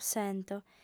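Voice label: "sento", lingua sarda, female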